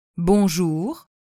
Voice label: "bonjour", French

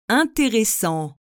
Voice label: "interessant", French

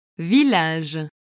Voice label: "village", French

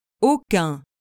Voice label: "aucun", French

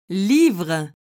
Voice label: "livre", French

